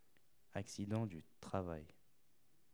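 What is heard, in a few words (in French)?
"accident du travail" French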